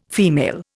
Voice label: "female", Indian